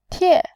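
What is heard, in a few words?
"tie", Mandarin Chinese, fourth tone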